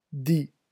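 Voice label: "dit", French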